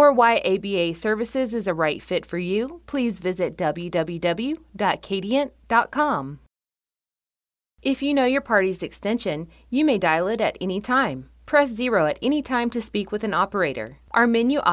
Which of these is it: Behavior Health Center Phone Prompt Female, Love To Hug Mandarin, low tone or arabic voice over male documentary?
Behavior Health Center Phone Prompt Female